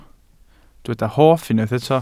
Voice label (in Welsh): "hoff", Welsh